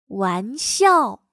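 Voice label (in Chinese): "玩笑", Chinese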